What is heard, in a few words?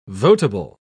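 "votable", British English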